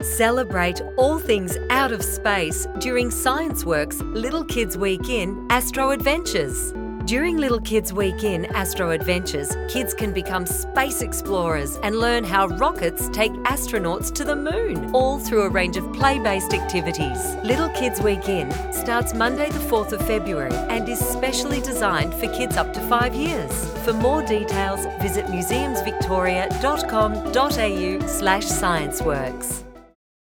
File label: Streaming Radio; Museums Victoria Scienceworks